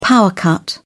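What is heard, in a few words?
"power cut", English, British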